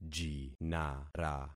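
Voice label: "jinara", concatenated syllables; Affixing experiment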